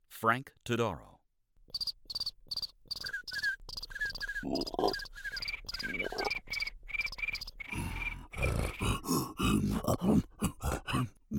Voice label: Creature